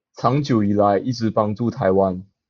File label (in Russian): Голоса, Тайваньский